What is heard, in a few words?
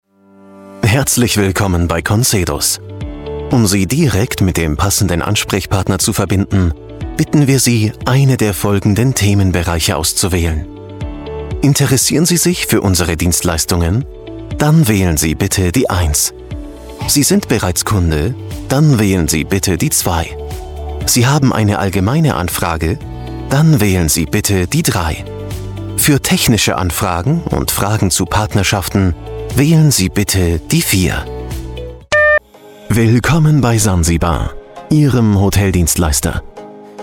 german voice over male ivr